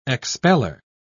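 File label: "expeller", English, British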